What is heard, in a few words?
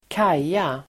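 "kaja", Swedish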